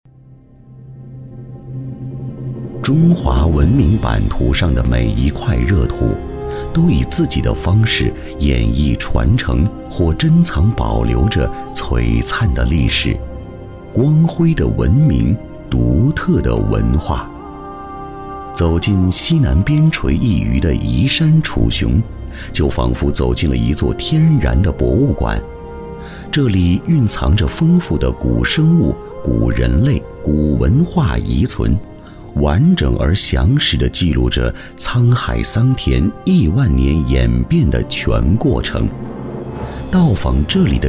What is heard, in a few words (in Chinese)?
男 纪录片